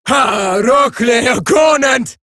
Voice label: Player Spotted, Female